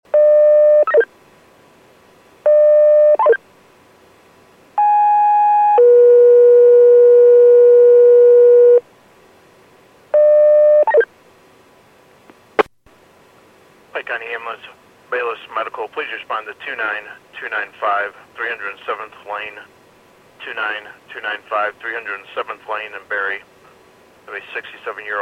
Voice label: PAGING